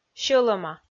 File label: "сьӧлӧма", Komi